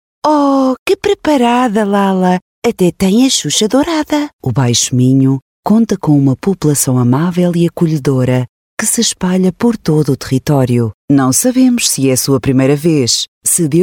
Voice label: Portuguese Euro